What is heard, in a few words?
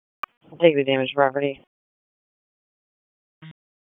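Raleigh Police Southeast District Dispatch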